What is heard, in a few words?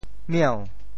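"mieu2", Teochew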